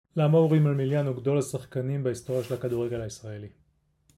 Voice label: hebrew prompt